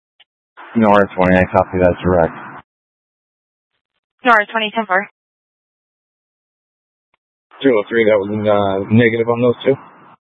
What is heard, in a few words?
Mutual Aid-Intersystem Interop B, scanner